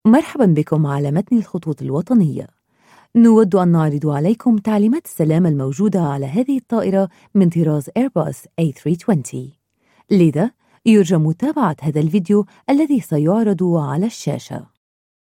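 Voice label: Arabic, Corporate; GoLocalise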